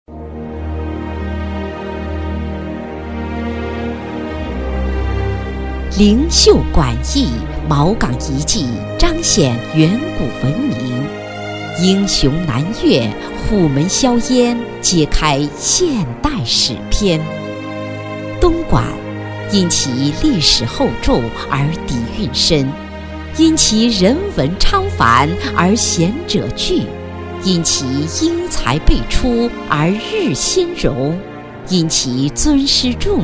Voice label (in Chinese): 女 专题; 东莞市东华教育集团; 普通话